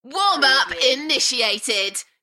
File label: UK female, Warm up initiated, Enthusiastic; beanstalk audio